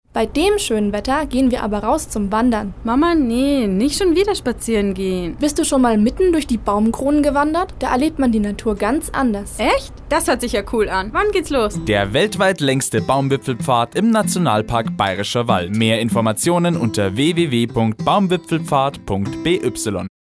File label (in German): Werbung Kurz; Erlebnis Akademie